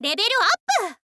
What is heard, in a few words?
"level up", girl; voice